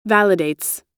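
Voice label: "validates", American English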